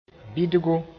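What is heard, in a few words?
"bɩdgo", Mooré; seed of sorrel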